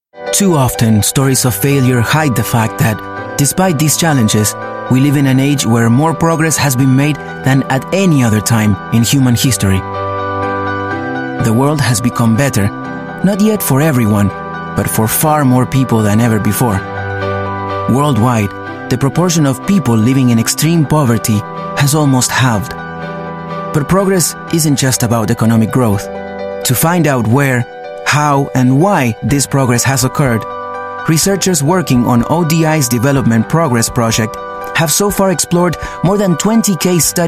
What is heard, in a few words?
English Spanish Strongly Accented